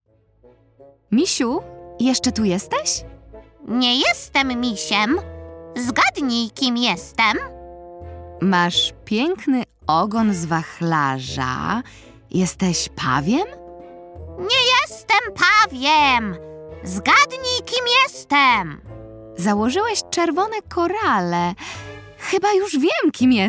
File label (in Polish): narracja; czasopismo mis; lato; gul gul gul rozmowa